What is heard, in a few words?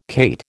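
"cate", American English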